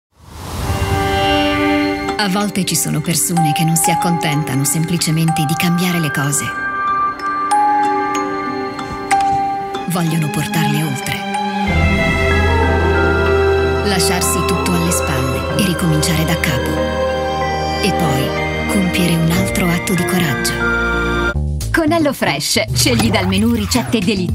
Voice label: Italian female commercial

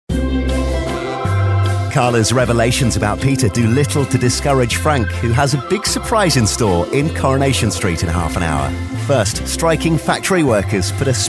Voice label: continuity radio

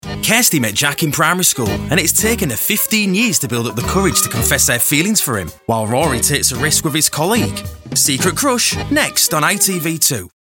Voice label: Yorkshire voiceover continuity radio

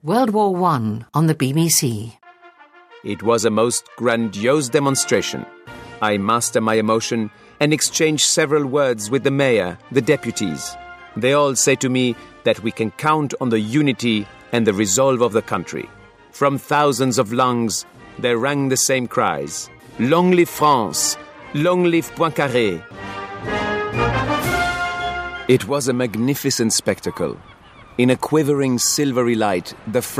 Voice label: documentary dubbing en

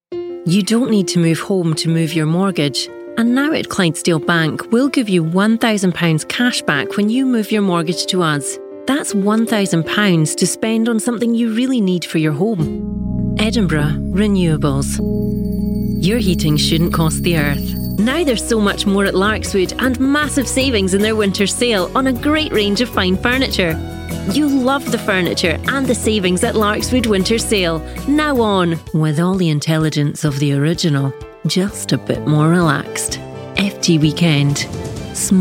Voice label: commercial Scottish voice over

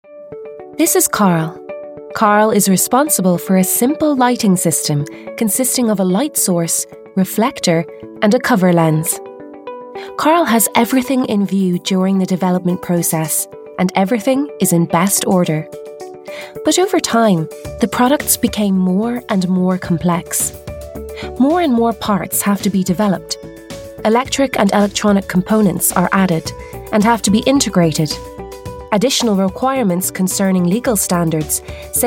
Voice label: irish voiceover elearning

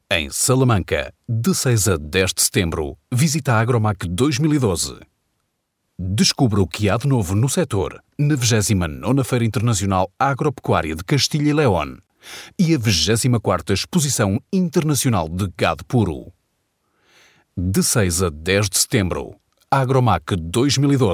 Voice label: Portuguese voiceover, commercial

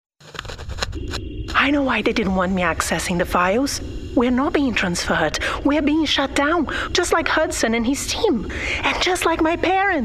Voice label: brazilian female english gaming

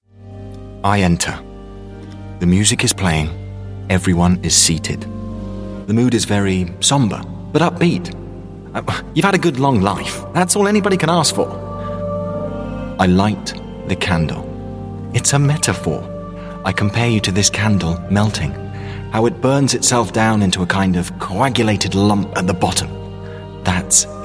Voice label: audiobook

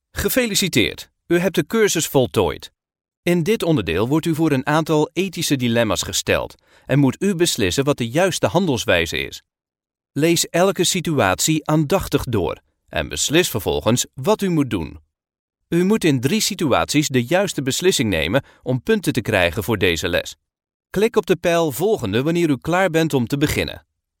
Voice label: Dutch voice e-learning

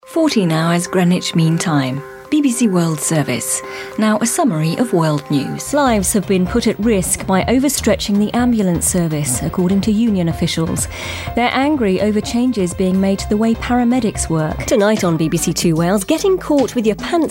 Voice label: broadcast

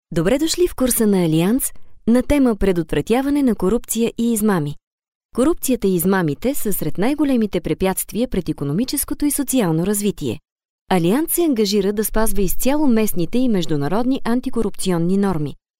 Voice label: bulgarian female elearning

